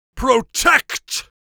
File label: protect, Mage Voice; Mage Voice Pack